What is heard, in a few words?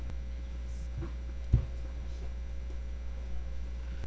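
"gentle", spoken word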